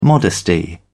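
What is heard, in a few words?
"modesty", British English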